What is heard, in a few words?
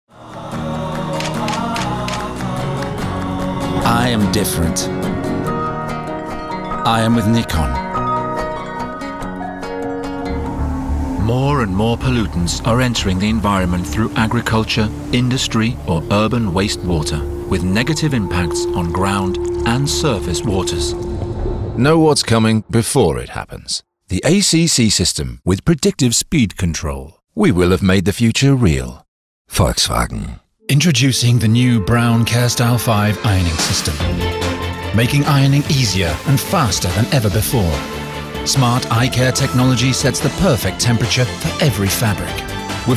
male english northern accent; english northern accents